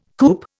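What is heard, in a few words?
"coupe", Indian English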